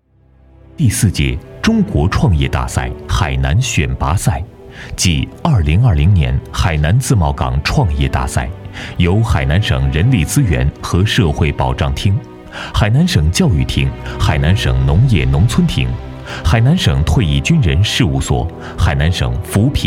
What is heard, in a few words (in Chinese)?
宣传片; 中国创业大赛